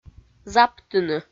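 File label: "заптыны", Komi-Zyrian, female; audio dict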